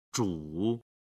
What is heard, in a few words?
"zhu", Chinese